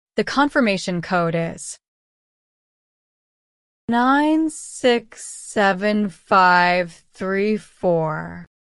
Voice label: ssml example zahlencode langsam en